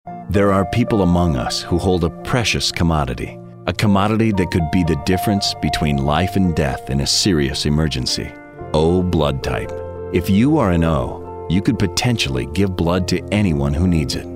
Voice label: caring